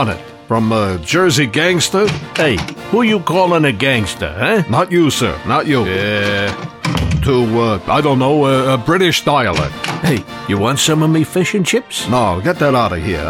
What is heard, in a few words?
Character voice; Character voice website demo